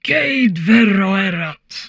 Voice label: humanoid portrait female; Stellaris; humanoids